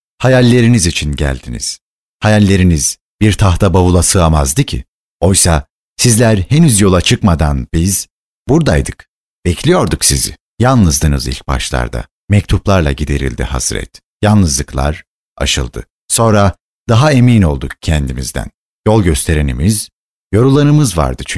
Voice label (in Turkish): Reklam